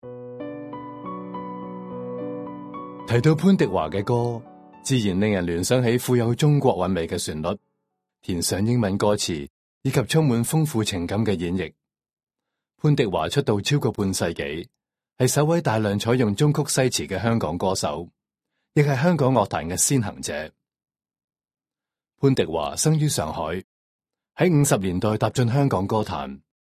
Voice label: Cantonese